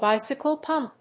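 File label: "bicycle pump", English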